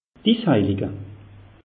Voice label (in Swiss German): "is heilige", alsace; meteo